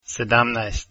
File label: "17", Croatian